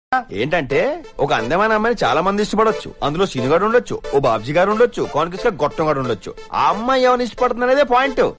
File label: Dialogue Telugu; Dubai Seenu; Ravi Teja